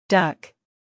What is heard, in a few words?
"duck", English